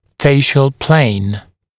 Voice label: "facial plane", dental english; glossary